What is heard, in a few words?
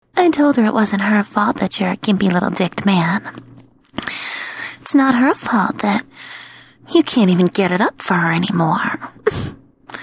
Phone call; to new cuckold